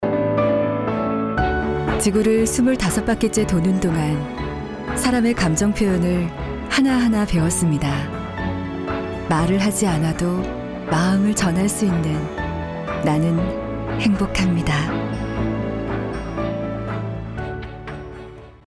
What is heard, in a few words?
Korean Male